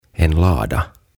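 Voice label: "En Lada", Swedish; Lato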